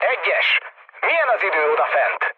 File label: hun chat, speech hun; War Thunder